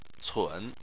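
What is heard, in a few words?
"cun" third tone, Chinese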